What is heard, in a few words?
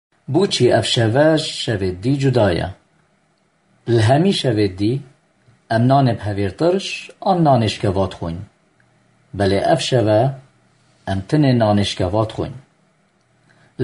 behdini